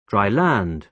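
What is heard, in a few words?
"dry land", British English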